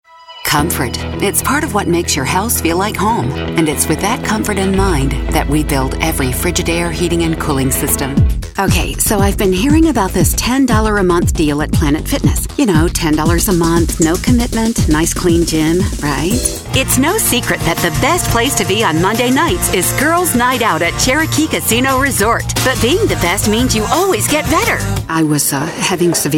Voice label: Voiceovers